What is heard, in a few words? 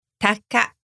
"taka" Japanese; hawk